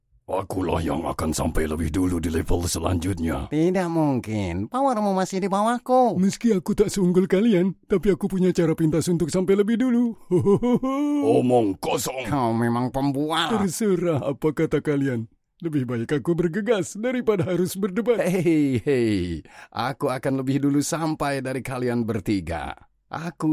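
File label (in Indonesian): 4 characters Pengisi Suara Pria; キャラクターボイス; Radio Primadona Pangkalan Bun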